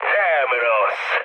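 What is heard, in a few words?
Hungarian trick mood low, speech; War Thunder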